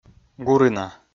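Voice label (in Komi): "гурина", Komi, male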